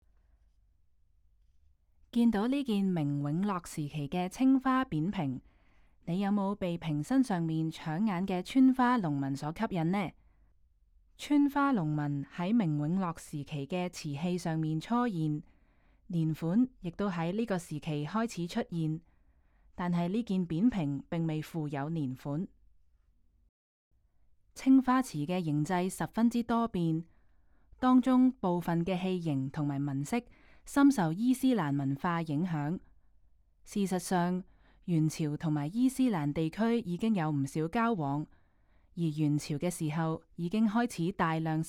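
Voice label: Cantonese, audio guide; 青花龍穿花紋扁壺; 青花龍穿花紋扁瓶; 故宫博物院; 明永樂時期的青花扁瓶; 青花瓷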